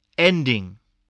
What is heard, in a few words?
"ending", spelling